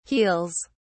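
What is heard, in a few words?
"heels", British English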